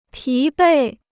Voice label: "疲惫", Chinese

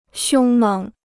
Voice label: "凶猛", Chinese

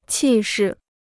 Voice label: "气势", Chinese

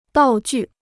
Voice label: "道具", Chinese

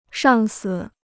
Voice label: "上司", Chinese

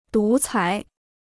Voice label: "独裁", Chinese

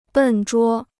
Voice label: "笨拙", Chinese

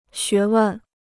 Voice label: "学问", Chinese

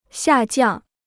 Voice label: "下降", Chinese

